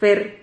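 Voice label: "fer", Spanish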